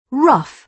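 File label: "rough", British English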